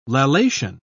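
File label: "lallation", British English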